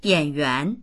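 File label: "yan3yuan2", Mandarin Chinese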